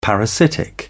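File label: "parasitic", British English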